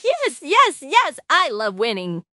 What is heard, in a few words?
happy, speech; fertig classic games